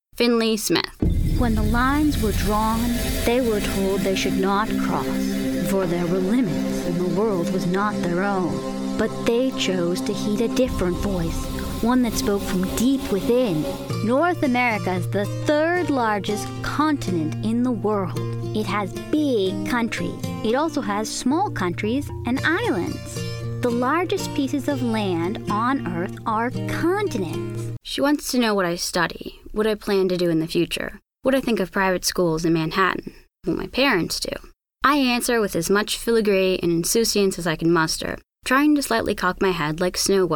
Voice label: Narration

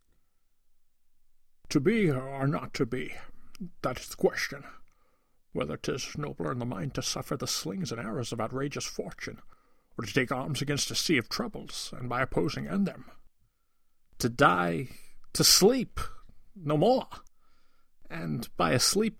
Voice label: Shakespeare impressions

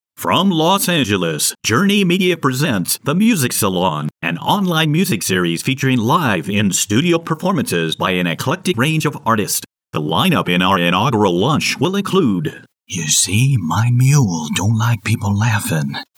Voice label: character

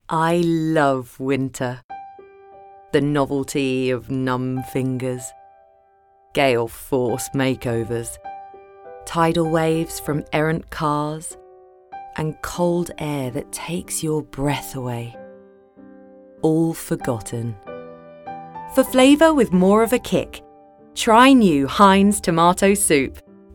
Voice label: Commercial

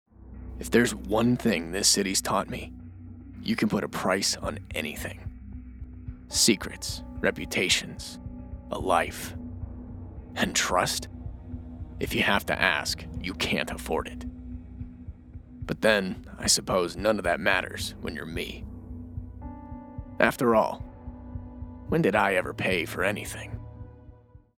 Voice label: Trust Read

